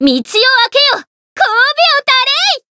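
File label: Voice encount, Japanese; tiramisu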